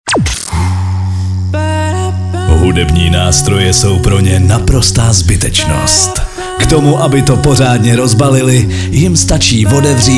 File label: liner